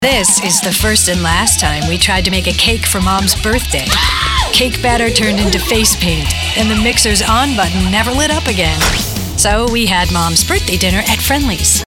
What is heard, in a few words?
Commercial; Friendlys